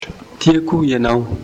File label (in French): malgache